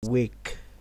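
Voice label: "wake", Nigerian Pidgin, male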